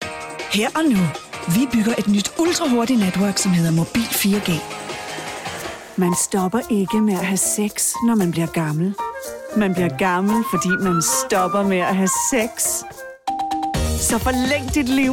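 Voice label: Danish, general; GoLocalise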